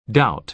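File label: "doubt", American English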